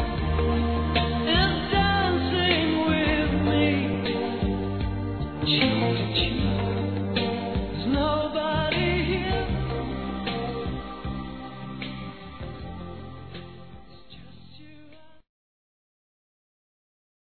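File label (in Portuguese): ANIVERSÁRIO AFILHADA PARA PADRINHO, Telemensagens, Fem; Aniversários; Aniv Familiar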